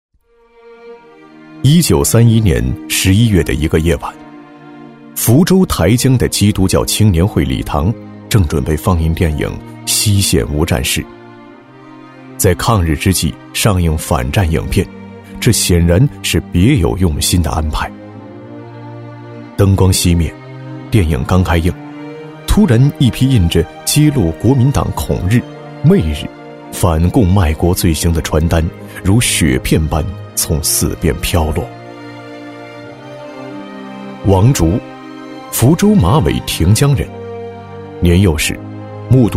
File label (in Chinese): 男, 历史纪录片, 低沉回忆讲述, 大气主流 质感磁性; 配音稿